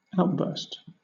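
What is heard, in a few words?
"outburst", British English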